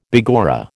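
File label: "begorra", American English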